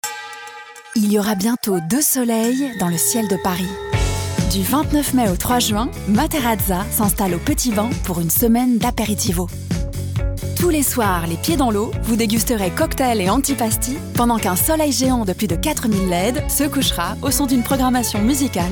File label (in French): Spot radio